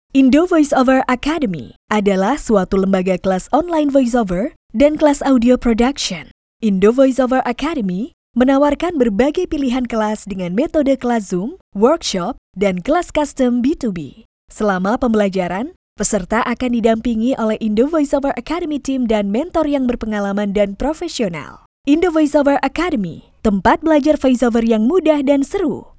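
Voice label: Indonesian formal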